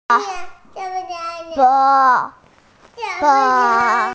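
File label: "po1", Mandarin Chinese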